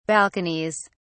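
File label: "balconies", British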